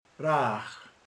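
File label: "füst", Hungarian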